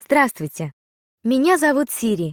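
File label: Russian, Russia; iOS Sounds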